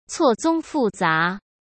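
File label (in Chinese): "错综复杂", Mandarin Chinese; hsk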